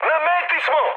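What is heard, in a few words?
Serbian near waypoint mood high; War Thunder; speech srb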